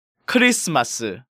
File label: "Christmas", Korean